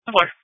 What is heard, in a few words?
Sheriff Dispatch